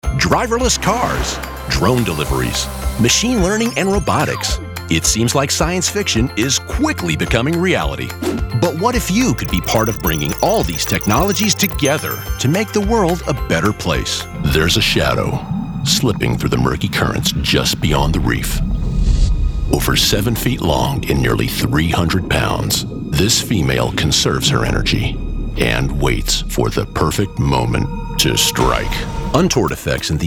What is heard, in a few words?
narration